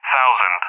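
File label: "1000s", English speech, Tanker Numbers; DCS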